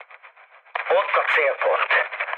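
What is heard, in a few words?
Hungarian near waypoint mood med, speech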